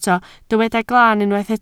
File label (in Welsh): "glan", Welsh